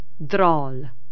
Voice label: cajun french